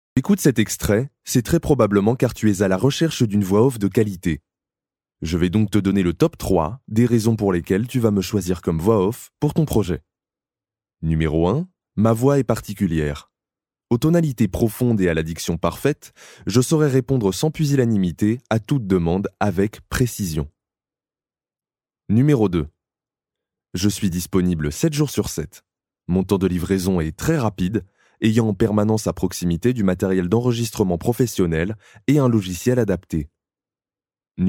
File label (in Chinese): 法语男, 外语, 小语种